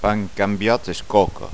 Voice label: "pan cambiat coca", Gascon

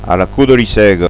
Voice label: "a la coda li sega", gasconha

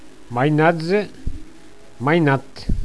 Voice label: "mainatge mainat", Gascon

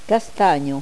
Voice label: "castanha", gasconha

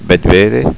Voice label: "bethveder", gasconha

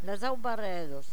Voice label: "las aubaredas", gasconha

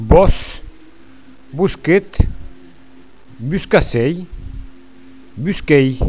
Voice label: "bosc", gasconha

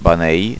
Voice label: "baneir", gasconha

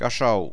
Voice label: "caishau", gasconha

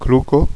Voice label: "cloca", Gascon

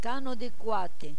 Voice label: "cana-de-quate", Gascon

